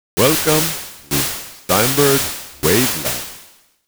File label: welcome noise gate